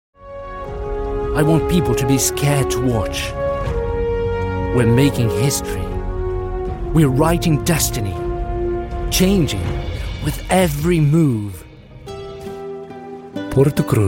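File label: Portuguese Brazilian Accented English; GoLocalise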